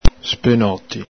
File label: "spenoti", Veneto